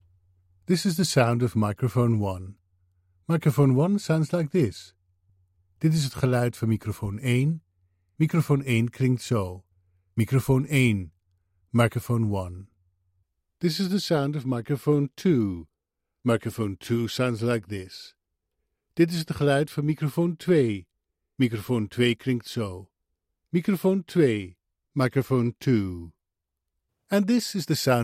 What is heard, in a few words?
mic test; nethervoice